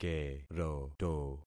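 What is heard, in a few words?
"keroto", concatenated syllables; Affixing experiment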